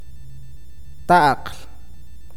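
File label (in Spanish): raíz, awakateko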